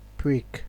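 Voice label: "prick", Nigerian Pidgin, male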